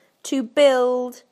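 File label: "to build", British English; PictureDictionary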